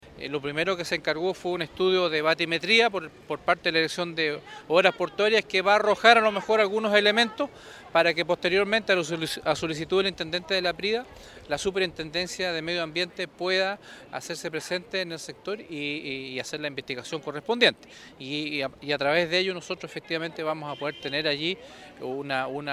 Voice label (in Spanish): cuña gobernador radio